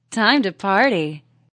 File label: Woman Says Time Party; Woman talking